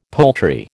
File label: "poultry", American